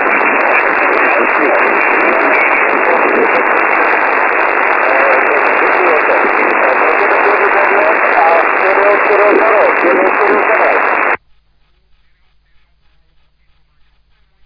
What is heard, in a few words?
SSB LSB 3.5MHz; SSB-LIGA